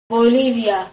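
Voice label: bolivia, Hebrew; gcompris-data; geography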